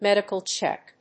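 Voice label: "medical check", English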